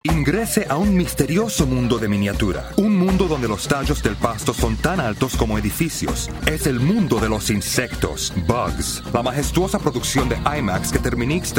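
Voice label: Spanish Latin American General; GoLocalise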